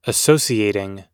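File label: "associating" US English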